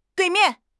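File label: "dui4 mian4", Chinese; HSK4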